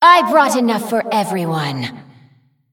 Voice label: Female Shivarra

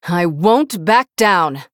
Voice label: Female Human Start

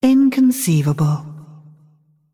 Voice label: Female Dragon ERROR GENERIC

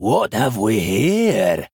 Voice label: Male Dwarf Thinking

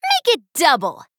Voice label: Female Human

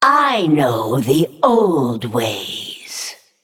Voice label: Female Naga TRIGGER ANCESTRAL SPIRIT

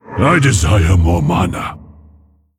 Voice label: Male Mogu, ERROR NEED MANA

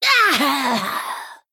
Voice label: Female Night Elf Death

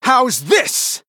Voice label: Male Human Attack

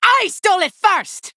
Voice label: Female Human Attack

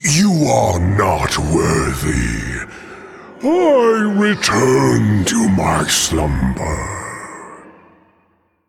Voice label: Male Elemental Player Loss

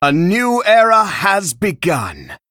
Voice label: Male Dragon Bartender Picked

